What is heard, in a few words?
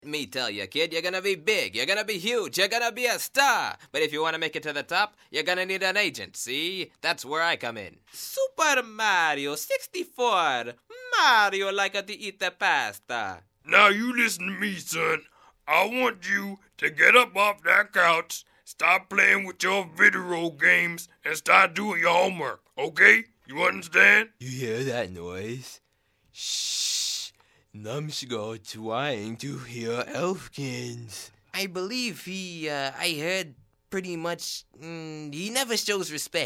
animation male